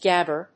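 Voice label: "Gabber", English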